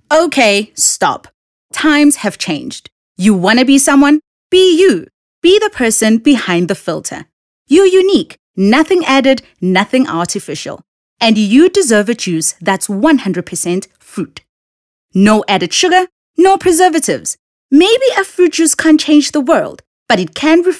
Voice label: English Conversational; OSM Talent

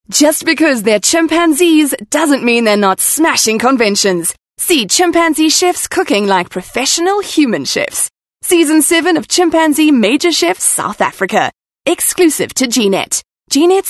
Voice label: English Bright

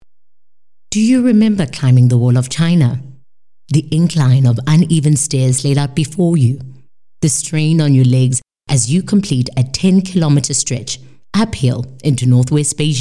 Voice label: English soft sell

